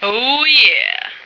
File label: "oh yeah", female